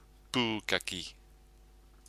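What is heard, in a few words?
"pukaki", Māori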